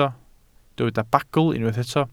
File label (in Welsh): "bagl", Welsh